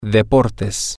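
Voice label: the sports, spanish, male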